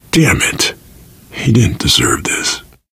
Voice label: grief manager, survivor voice; source engine; left 4 dead 2